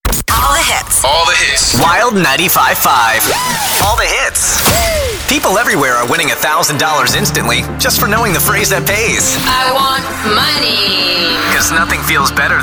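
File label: imaging voice over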